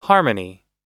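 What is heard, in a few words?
"harmony", American English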